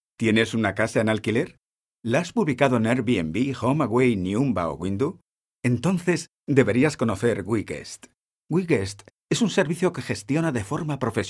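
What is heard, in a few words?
Spanish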